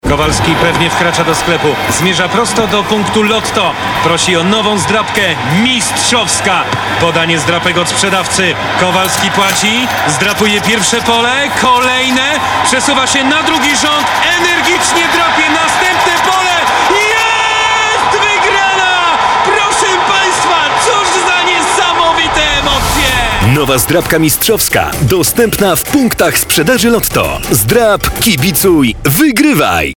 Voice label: Male VO Aktorskie

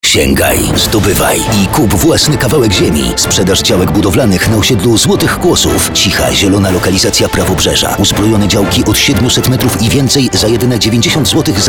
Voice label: Male VO Commercial

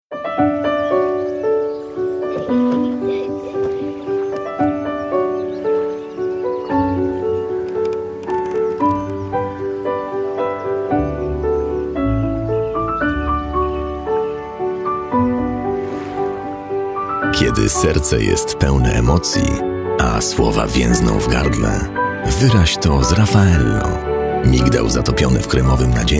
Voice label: Male VO Commercial

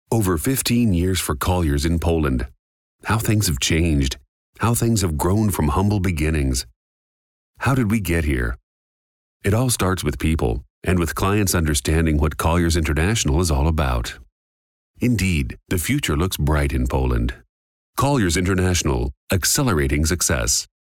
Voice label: Male US Corporate

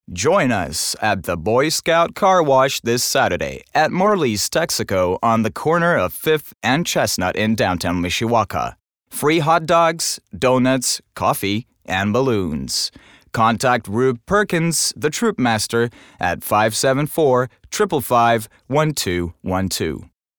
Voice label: Male US Commercial

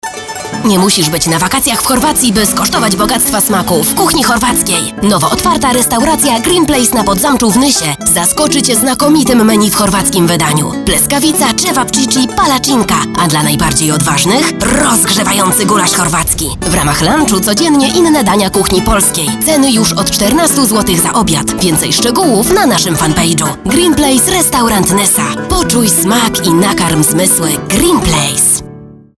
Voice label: Female VO Commercial

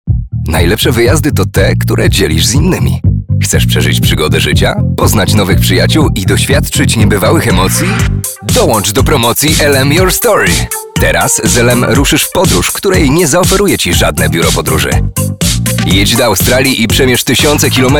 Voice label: Male VO Corporate

